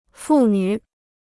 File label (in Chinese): "父女", Chinese